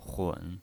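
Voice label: "hun", third tone, pinyin voice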